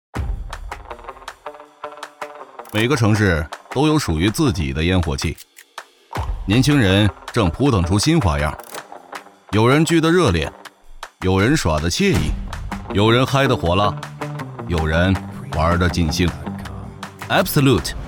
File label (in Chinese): 广告